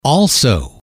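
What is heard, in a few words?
"also", male, english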